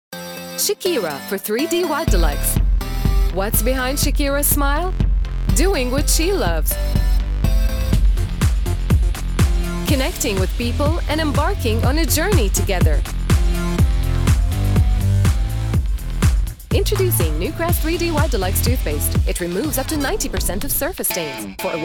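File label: English US Corporate Narrations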